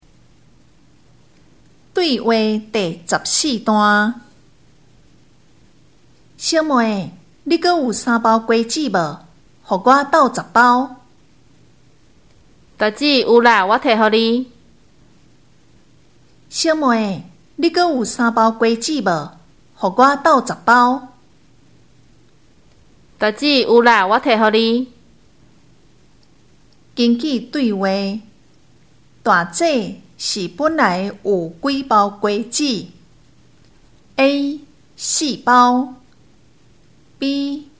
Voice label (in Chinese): 對話, 閩南語; 閩南語語言能力認證; 閩南語能力測驗題本